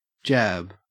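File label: "jab", Australian English; pronunciations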